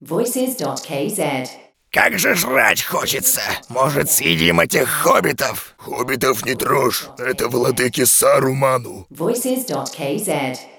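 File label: Russian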